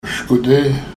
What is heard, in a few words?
"shkode" pronunciation; Aadsookaan related words